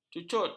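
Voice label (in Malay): "cucut", Malay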